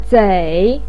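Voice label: "zei" third tone, Mandarin Chinese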